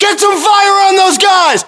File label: order action suppress, US